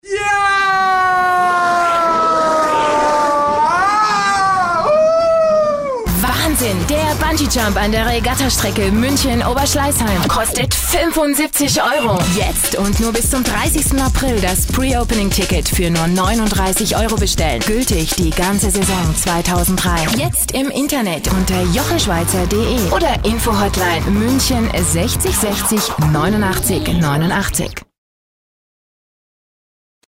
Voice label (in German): Radiospot